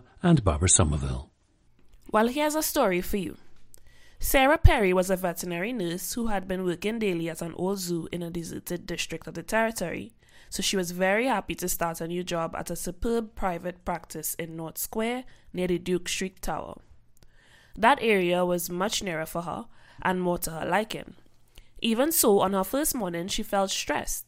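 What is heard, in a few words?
trinidad, dialects of English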